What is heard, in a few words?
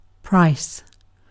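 "price", British English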